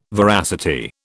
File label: "veracity", British